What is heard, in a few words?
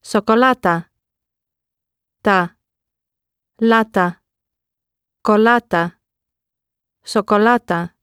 "sokolata", Greek